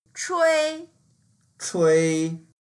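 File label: "chui1", Mandarin Chinese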